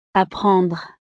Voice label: "apprendre", francés